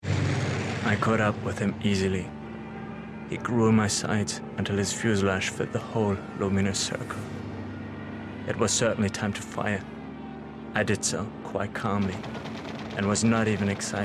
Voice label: Narration; Untold Battle Of Britain

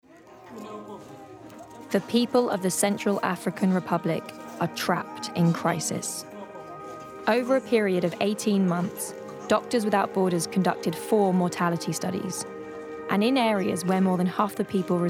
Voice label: Narrative

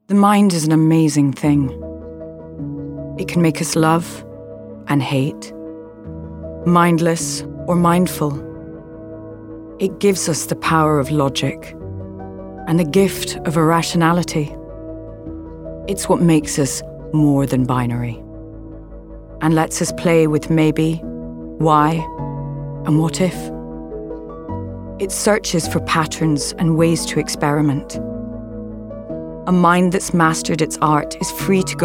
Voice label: Voice Over Reel

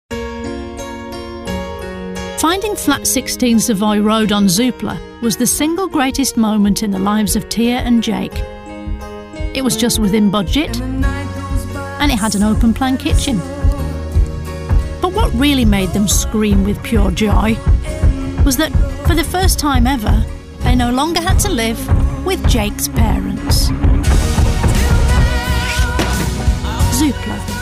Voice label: Commercial; Zoopla

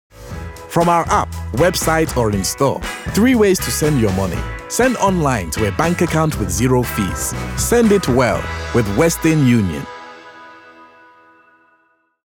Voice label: Nigerian; Western Union

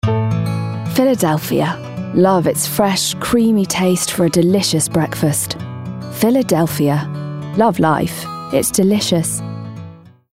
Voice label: COMMERCIAL; Philadelphia

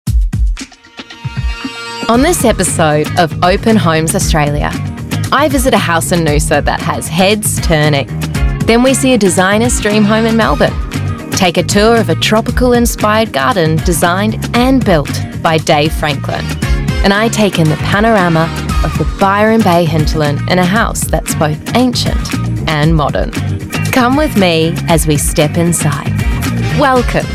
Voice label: Aus Narration; Open Homes

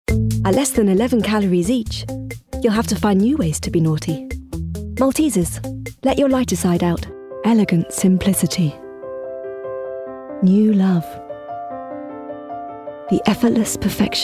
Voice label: Commercial Voicereel

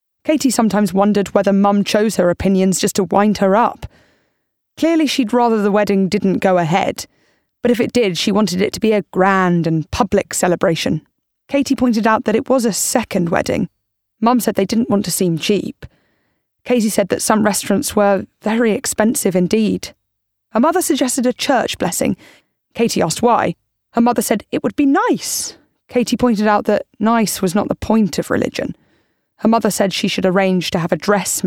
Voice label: AUDIOBOOK; A Spot of Bother

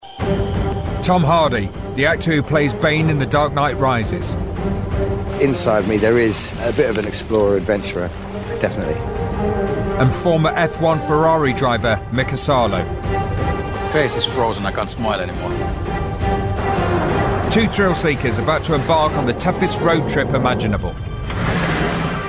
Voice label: trailer; Driven to Extremes

